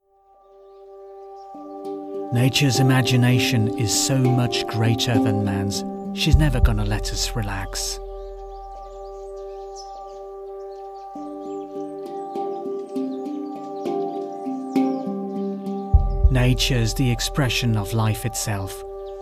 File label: Fictional character Medium voice Serious tone